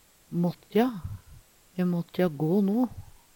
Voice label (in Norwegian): mått`ja, Numedalsmål; Uttrykk; må, er nødd til